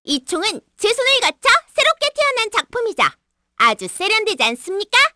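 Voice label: vox dia, Korean; Hanus; kingsraid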